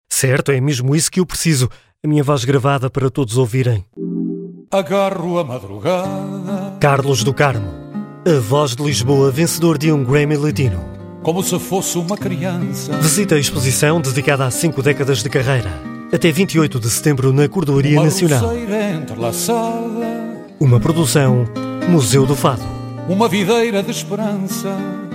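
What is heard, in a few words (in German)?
Portuguese, Werbung Variabel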